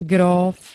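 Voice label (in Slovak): "gróf", slovenské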